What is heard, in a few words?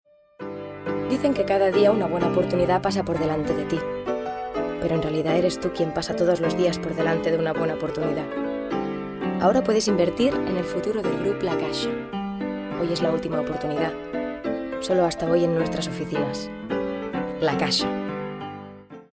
female spanish bank comercial; ideasonora